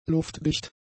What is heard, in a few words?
"luftdicht", German; adjectives